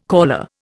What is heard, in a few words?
"caller", Indian